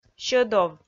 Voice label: "сьӧдов", Komi

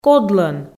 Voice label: "кодлӧн", Komi

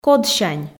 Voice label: "кодсянь", Komi

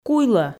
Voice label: "куйлӧ", Komi

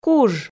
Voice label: "куж", Komi

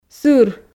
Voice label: "сыр", Komi

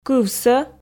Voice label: "кывсӧ", Komi

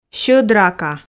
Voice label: "сьӧд рака", Komi

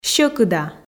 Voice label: "сьӧкыда", Komi

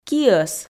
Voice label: "киӧс", Komi

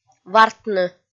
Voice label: вартны, f

